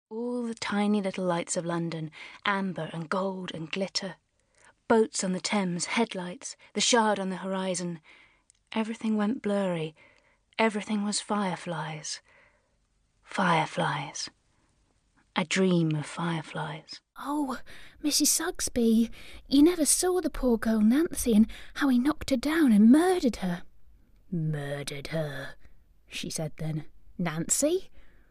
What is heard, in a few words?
Audiobook Characters and Accents